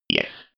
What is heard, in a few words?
robot voice yes